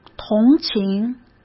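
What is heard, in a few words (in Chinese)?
"同情", Mandarin Chinese; chinese flashcards; hsk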